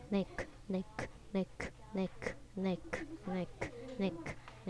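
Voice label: "neck", spoken word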